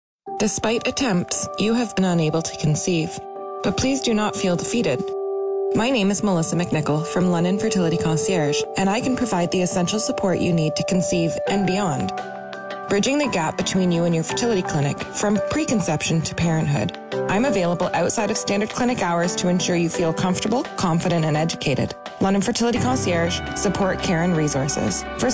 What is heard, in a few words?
radio ad